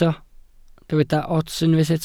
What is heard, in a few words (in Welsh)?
"ots", Welsh